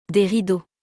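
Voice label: "Des rideaux", French; vocabulaire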